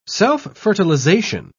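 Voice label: "self-fertilization", British English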